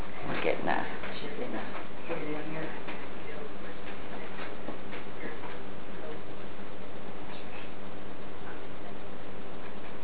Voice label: female voice at 1 sec and childs voice at 6 to 7 secs, house